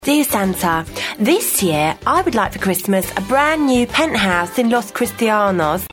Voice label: essex